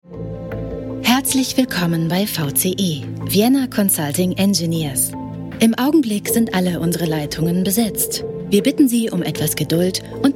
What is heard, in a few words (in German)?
warteschleife